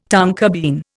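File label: "tonnage", Indian; Dictionary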